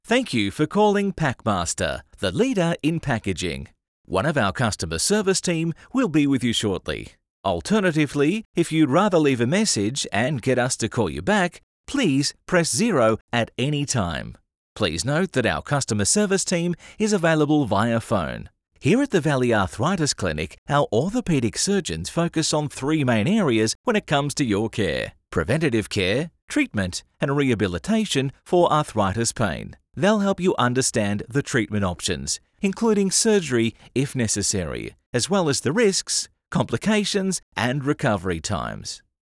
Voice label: On Hold